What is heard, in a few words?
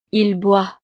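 "il boit", French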